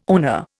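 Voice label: "owner", Indian English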